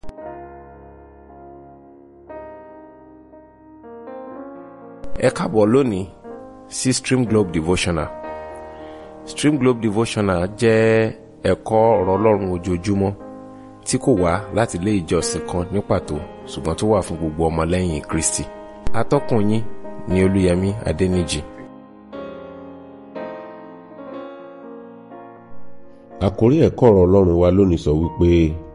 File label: Devotional Audio Yoruba